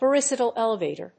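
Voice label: "periosteal elevator", English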